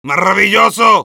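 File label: positive vocalization, Spanish; Medic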